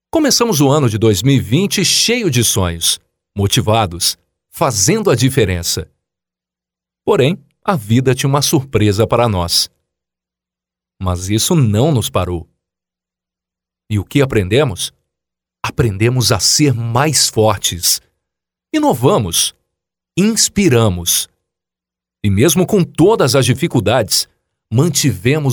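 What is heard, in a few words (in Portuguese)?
generico Portugal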